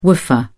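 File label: "woofer", British English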